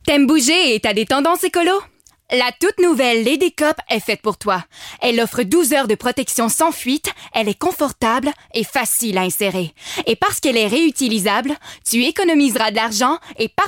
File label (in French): annonceur fr normatif affirmee determinee amicale avec sourire; ladycup; energique promo